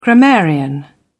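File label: "grammarian", English, British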